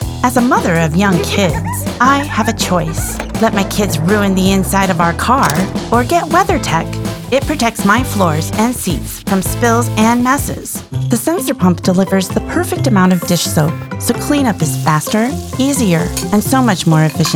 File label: commercial, friendly, engaging, and enthusiastic female voice